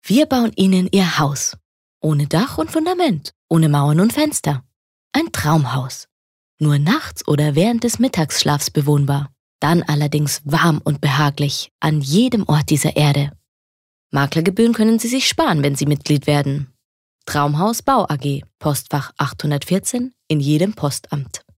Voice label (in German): Werbung Freundlich